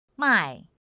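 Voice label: "mài", Chinese; pinyin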